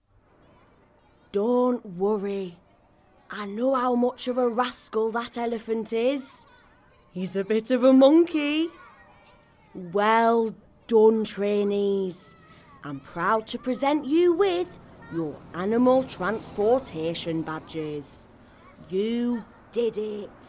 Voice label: Animation

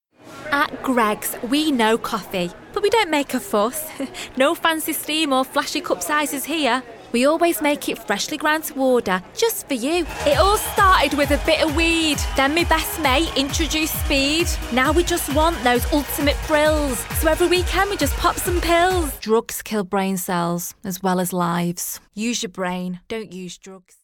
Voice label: commercials

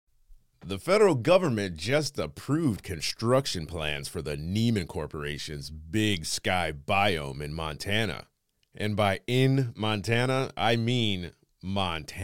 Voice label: Home Studio

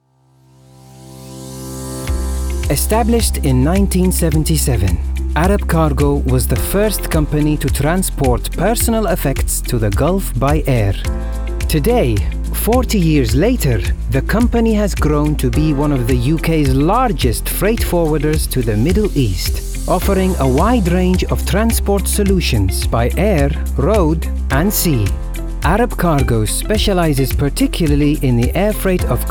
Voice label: Corporate English in Arabic Accent; Arabic, Middle Eastern (Egypt), Male, Studio, 20s-30s

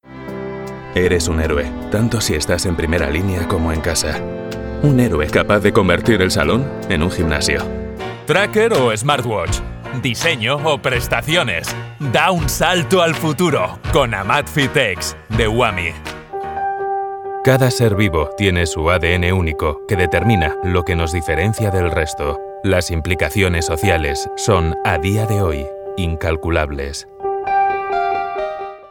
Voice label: Spanish

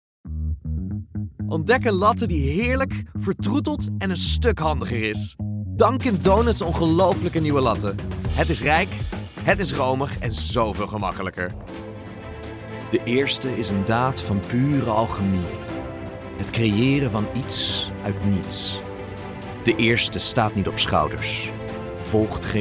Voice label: Dutch; Dutch, European, Male, Home Studio, 20s-30s

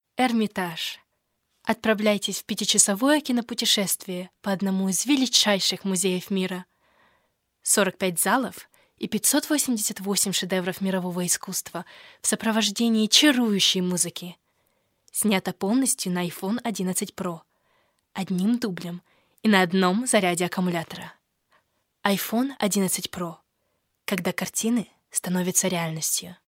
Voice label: Russian

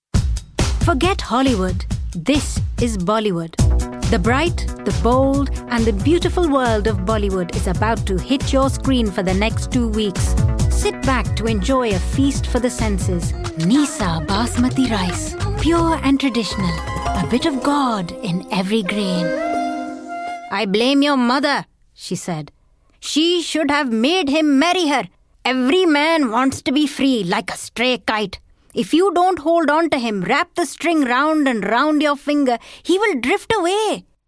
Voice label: Punjabi Urdu English

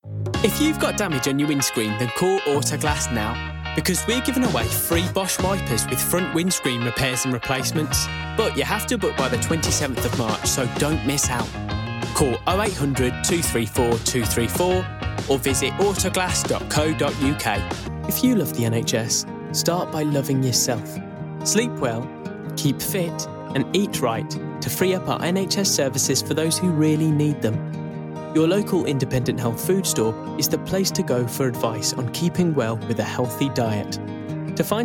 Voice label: Commercial

